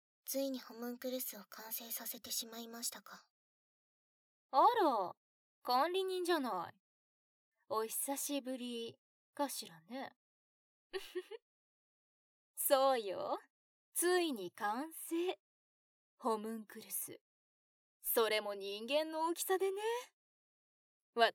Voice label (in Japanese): 効果音・BGMなし; ハニートラップランド～トロイの間～; トラック６”回収(DL版)”